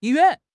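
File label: "yi1 yuan4", Mandarin Chinese; HSK1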